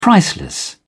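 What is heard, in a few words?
"priceless", British English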